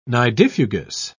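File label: "nidifugous", British English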